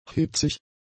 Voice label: "hitzig", German; adjectives